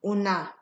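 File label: "una", Nigerian Pidgin, female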